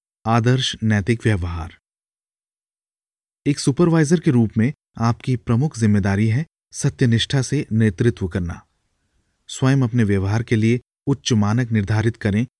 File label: Corporate Training Hindi